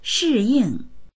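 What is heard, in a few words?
"shi4ying4", Mandarin Chinese; 适应; 適応（する）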